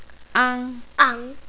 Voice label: "ang", phonics